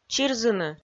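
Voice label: "чирзыны", Komi, female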